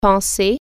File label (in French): "think", French